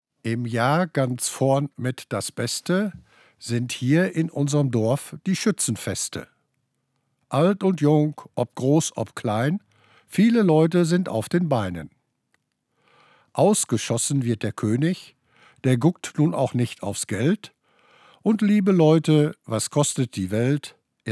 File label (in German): Hochdeutsch; Vogelstange; Nottuln; Plattdeutscher Weg